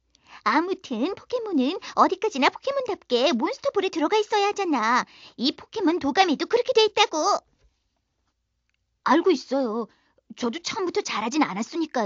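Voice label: Korean female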